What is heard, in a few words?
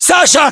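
"sasha", battlechatter, Russian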